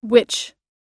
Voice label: "which", us